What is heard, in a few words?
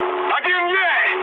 player killed enemy, mood med, speech ru